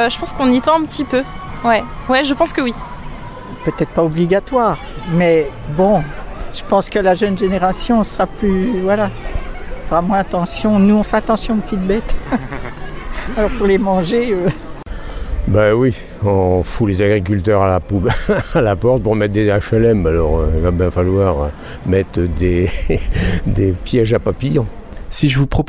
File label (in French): micro trottoir allimentation insectes